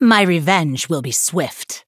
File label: threaten